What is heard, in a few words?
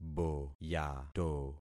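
"boyato", concatenated syllables; Affixing experiment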